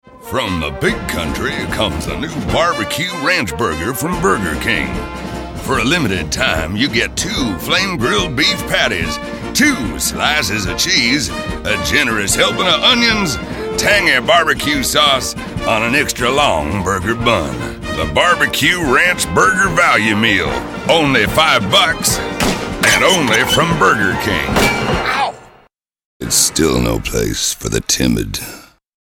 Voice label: us commercial